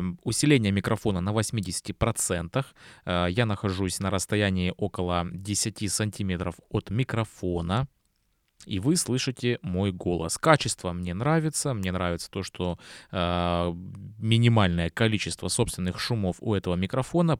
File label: fifine k688 usb